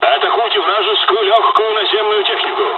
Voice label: ground attack, dialogs russian; midway jpn; War Thunder

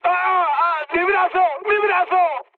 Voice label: damaged pilot, mood high, speech; War Thunder

